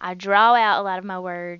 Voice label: southern